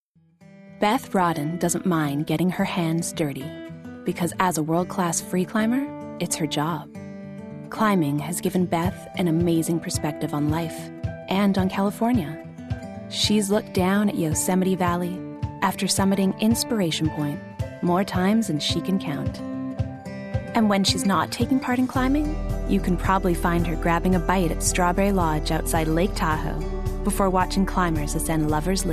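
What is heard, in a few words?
US Narration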